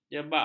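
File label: "jebak", Malay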